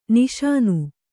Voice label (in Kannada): "ನಿಶಾನೆ", Kannada